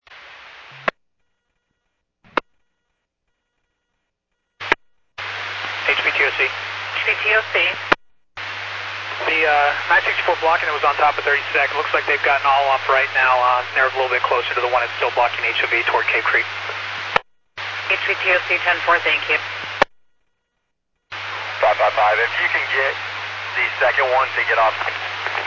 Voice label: Medevac, scanner; NativeAirAmb